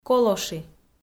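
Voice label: "колоши", Komi, female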